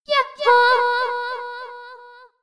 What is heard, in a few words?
yahho delay